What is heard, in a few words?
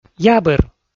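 "ябыр", Komi, male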